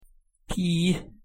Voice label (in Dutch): "tì", Schots-Gaelisch; thee-in-andere-talen